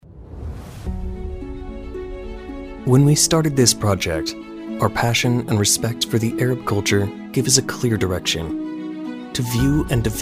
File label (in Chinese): 外籍男英 美式英语 科技解说 科技; 外语